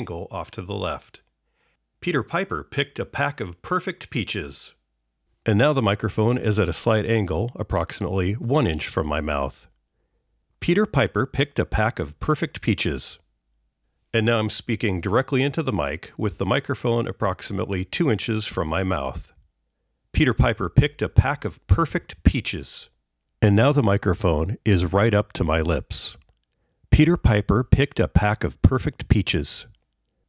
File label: Plosives